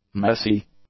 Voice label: "messy", english; adjectives